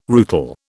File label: "rootle", British